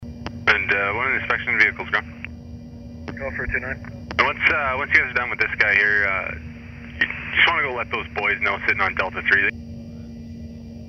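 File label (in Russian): переговоры диспетчеров; звуки у самолёта при взлёте или приземлении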